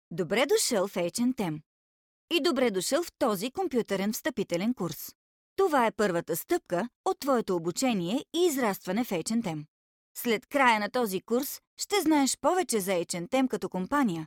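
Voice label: bulgarian female e-learning; voice demos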